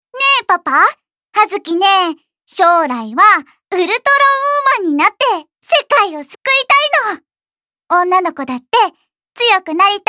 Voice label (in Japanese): 幼い女の子